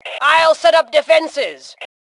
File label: Defenses, Female; T2 Female 04